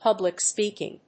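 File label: "public speaking", English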